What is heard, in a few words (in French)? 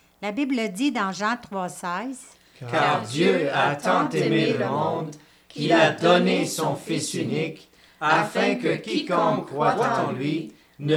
lecture et narration; Cantate : Christ notre Pâque; Pâques